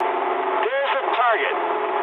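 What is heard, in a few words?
English near waypoint mood medium; speech; War Thunder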